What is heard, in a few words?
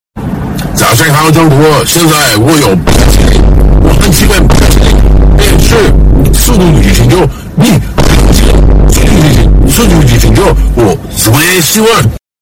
Loud bing chilling